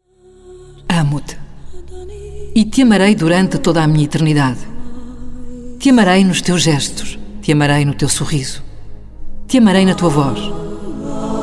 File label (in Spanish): Femenino